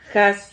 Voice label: "jas", Silabas

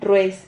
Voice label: "rrueis", Silabas

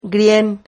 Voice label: "grien", Silabas

